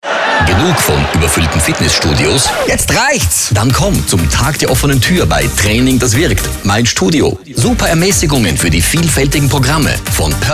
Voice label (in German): werbung SPOT Tag der offenen Tuer; Mein Studio